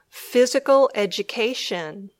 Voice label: "physical education", English